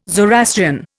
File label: "Zoroastrian", Indian